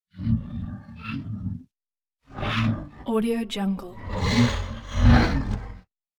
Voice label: talking moviola robot vocals